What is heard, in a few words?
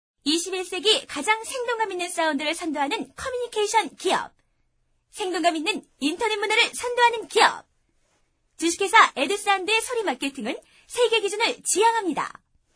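Korean female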